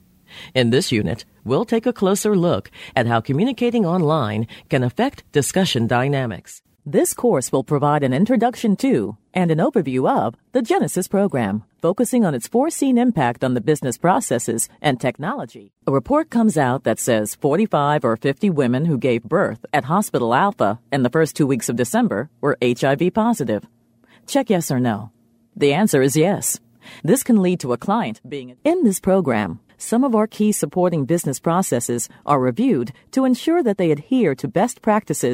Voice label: E-Learning